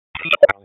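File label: Police Northeast District Dispatch, Raleigh; wake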